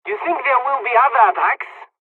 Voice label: dialog, hungarian; War Thunder